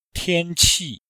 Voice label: "tian1qi4", chinois; Cours de chinois

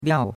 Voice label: "liao4", chinois; Cours-de-Chinois

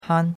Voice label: "han1", chinois; Cours de Chinois

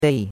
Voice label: "dei4", chinois; Cours de Chinois

